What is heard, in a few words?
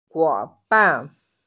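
"huoban", Mandarin Chinese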